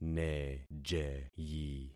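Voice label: "nejeyi", concatenated syllables; Affixing experiment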